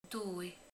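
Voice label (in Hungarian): "kettő", Hungarian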